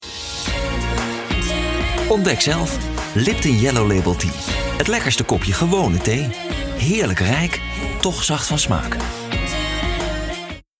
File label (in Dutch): warm, stem voice-over; Stemkleuren